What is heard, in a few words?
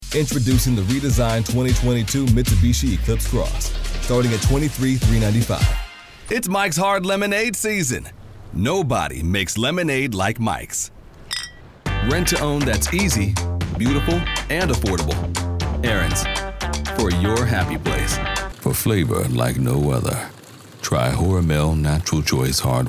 commercial men